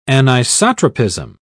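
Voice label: "anisotropism", British English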